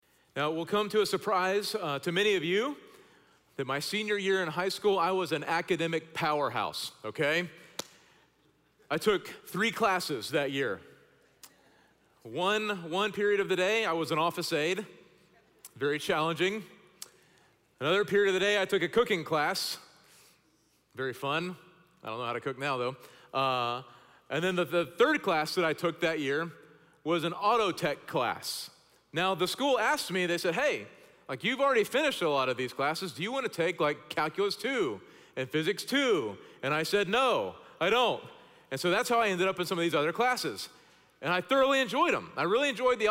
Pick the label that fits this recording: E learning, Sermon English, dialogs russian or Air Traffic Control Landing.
Sermon English